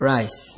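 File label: "rice" Nigerian Pidgin, male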